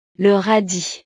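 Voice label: "le radis", French; verduras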